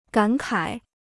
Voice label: "感慨", Chinese

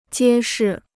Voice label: "揭示", Chinese

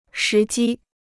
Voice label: "时机", Chinese

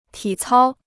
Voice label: "体操", Chinese

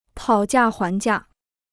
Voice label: "讨价还价", Chinese

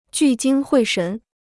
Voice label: "聚精会神", Chinese

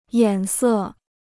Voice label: "眼色", Chinese

